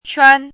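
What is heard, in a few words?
"quan1" Mandarin Chinese, high level tone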